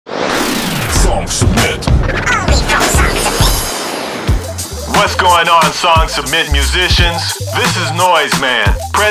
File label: radio drop